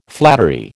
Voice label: "flattery", American